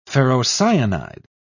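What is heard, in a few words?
"ferrocyanide", English, British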